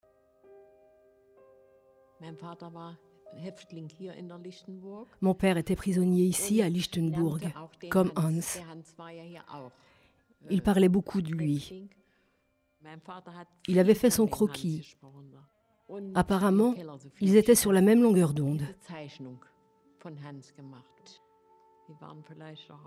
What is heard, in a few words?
voice over